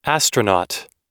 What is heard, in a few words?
"astronaut", us